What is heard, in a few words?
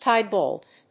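"tie bolt", English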